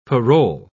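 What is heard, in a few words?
"parol", British English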